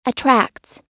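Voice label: "attracts", US English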